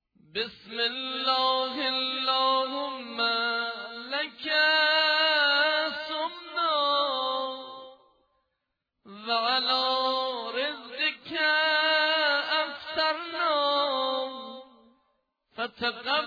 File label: dua eftar, مناجات افطار